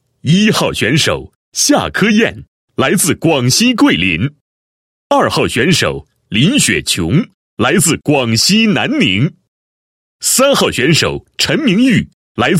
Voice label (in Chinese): 男 颁奖 颁奖词 最佳奖 选手介绍